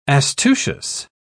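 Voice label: "astucious", British English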